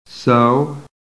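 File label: "sew", American English; PHONETIC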